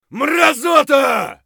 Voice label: bear battlecry; eft